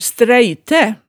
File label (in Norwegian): "stræitæ", hemsedalsmaalet